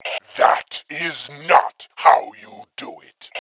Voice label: sarcasm; Tribes2